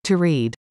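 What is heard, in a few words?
"to read", English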